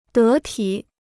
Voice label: "得体", Chinese

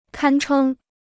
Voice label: "堪称", Chinese

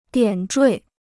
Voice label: "点缀", Chinese

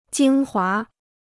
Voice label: "精华", Chinese

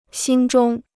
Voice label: "心中", Chinese

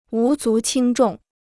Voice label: "无足轻重", Chinese

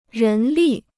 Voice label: "人力", Chinese

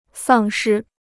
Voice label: "丧失", Chinese

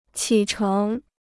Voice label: "起程", Chinese

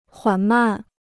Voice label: "缓慢", Chinese

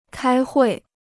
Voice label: "开会", Chinese

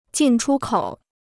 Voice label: "进出口", Chinese

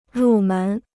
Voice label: "入门", Chinese

